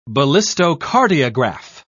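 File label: "ballistocardiograph", British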